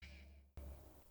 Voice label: gamemode deathmatch, announcer; tf2cciv; open fortress